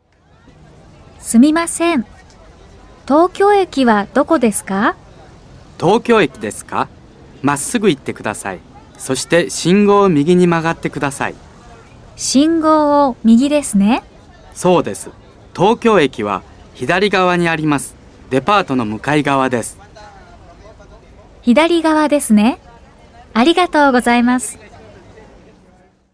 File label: Japanese